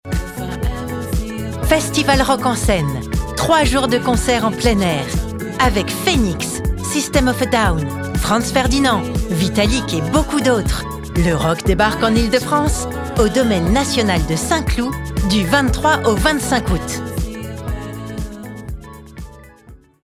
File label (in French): Voix off pub; Rock en Seine